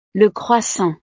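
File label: "le croissant", French; desayuno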